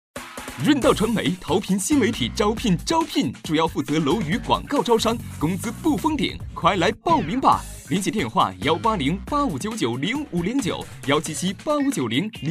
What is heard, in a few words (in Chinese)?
男 宣传广告; 润道招聘